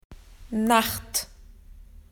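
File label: "night", Australian English; PictureDictionary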